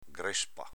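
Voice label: "grespa", Venetian dialect; s.f. piega, increspatura, grinza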